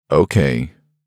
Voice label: man ok; voice-ringtones; notification